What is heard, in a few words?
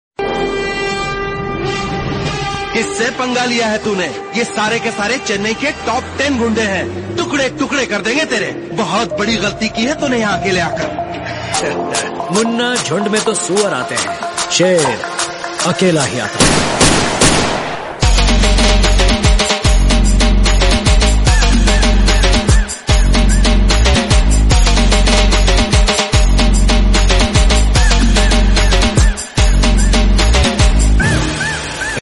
dialogue bgm; rajnikant